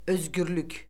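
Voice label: "özgürlük", Azerbaijani; Orfoqrafiya Lüğəti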